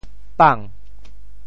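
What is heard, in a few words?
"pang2", Teochew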